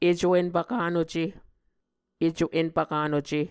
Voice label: "eco empvkanuce", Muscogee

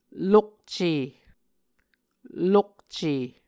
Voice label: "lokce", Muscogee

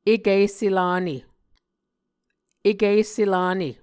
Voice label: "ekv esse lane", muscogee

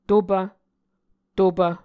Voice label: "topv", Muscogee

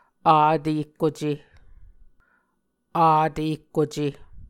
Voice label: "avtehkuce", muscogee

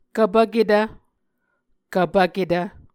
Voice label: "kvpvketv", Muscogee; A Dictionary of Creek/Muskogee; SIL International; Onvkv Ohhvyayices

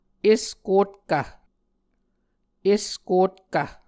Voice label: "eskotkv", Muscogee

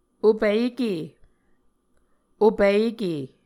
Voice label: "opike", Muscogee

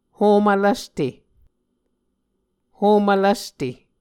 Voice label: "homv lvste", Muscogee

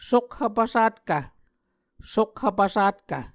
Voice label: "sokhv pvsatkv", Muscogee

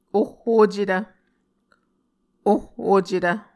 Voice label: "oh ocetv", Muscogee

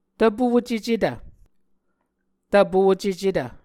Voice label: "tvpocecetv", Muscogee

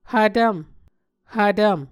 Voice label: "hvtvm", Muscogee

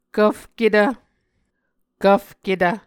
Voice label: "kvfketv", Muscogee

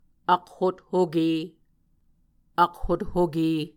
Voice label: "akhothoke", Muscogee

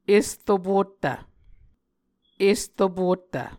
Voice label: "esropottv", Muscogee

